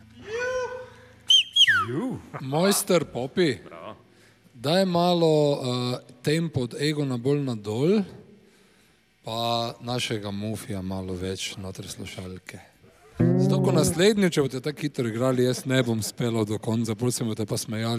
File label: Dialog